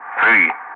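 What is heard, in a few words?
"3", calm, English; Ka-50; Wingman; DCS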